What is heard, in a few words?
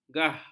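"gah", Malay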